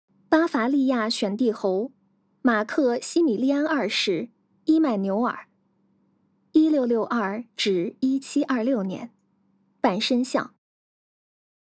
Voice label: Putonghua

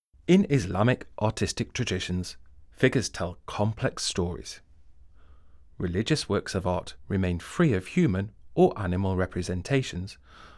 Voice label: English, audio guide; Shah Sulayman "hunting" carpet; Tabriz, Safavid Iran; About 1610

